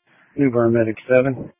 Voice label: EMS Dispatch

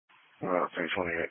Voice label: Police Patrol 1 (Dispatch)

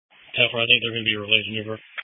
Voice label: Police Patrol 1 (Dispatch)

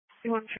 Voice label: New Bern Police Patrol 1 (Dispatch)

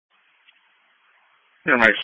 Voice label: EMS Dispatch

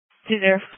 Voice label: Police Patrol 1 (Dispatch)

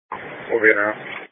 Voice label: EMS Dispatch

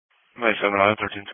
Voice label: EMS Dispatch

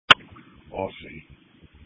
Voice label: Police Patrol 1 (Dispatch)

